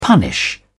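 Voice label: "punish", British English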